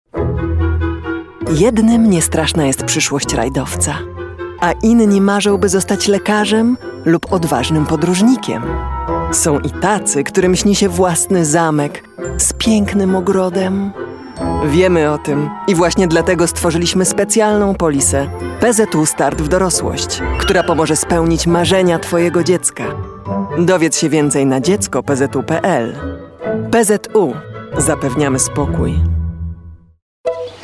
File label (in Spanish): Femenino